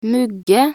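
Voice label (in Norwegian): "mugge", Norwegian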